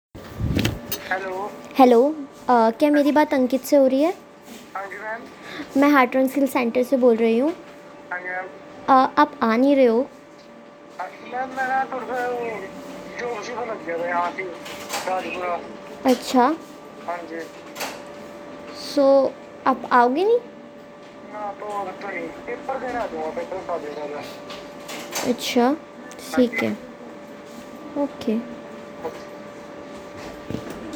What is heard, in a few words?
call recording